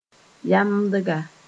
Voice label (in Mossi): "yamdga", moore